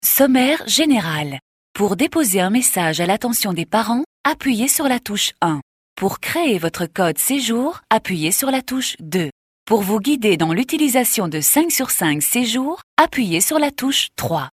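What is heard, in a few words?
French, female, corporate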